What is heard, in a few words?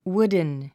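"wooden", English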